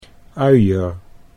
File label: "uier", Dutch